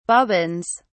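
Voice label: "bobbins", British English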